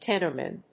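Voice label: "Tenormin", English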